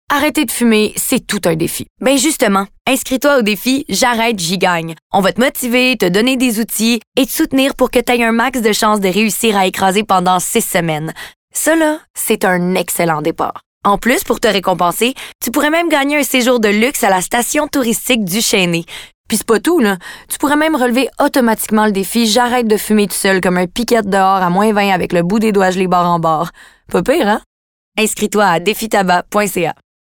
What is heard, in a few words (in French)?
publicite radio adultes